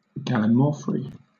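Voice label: "gallimaufry", British English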